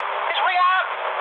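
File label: German bailout mood high, speech; War Thunder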